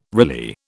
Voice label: "Riley", British